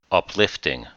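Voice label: "uplifting", English; adjective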